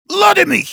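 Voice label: activate charge, German; "Lade mich!"; Heavy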